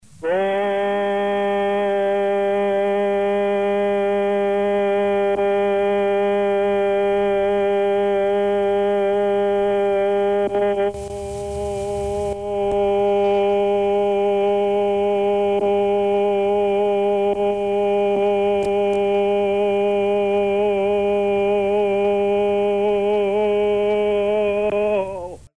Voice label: Mexico Announcer style male